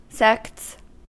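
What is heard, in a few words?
"sects", American English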